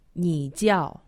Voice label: "nijiao", Chinese; Survival Chinese

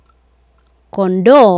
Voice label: "kondoo", Swahili

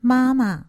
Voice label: "mama", Chinese; Survival Chinese

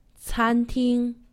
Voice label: "canting", Chinese; Survival Chinese

